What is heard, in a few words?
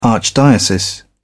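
"archdiocese", English, British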